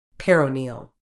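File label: "peroneal", medical English, British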